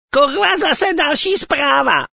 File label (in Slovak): sms hlásky cz